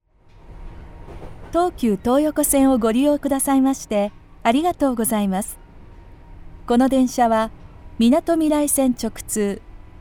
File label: Train Announcement